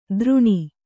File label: "ದ್ರುಣಿ", Kannada